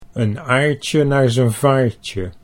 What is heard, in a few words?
"een aardje naar", Dutch